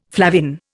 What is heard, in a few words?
"flavin", Indian